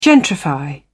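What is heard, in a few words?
"gentrify", British English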